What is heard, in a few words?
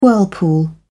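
"whirlpool", British English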